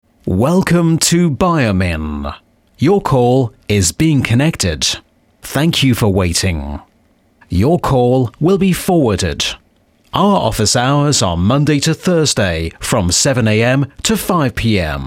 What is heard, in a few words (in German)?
telefon